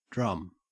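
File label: "drum", Australian English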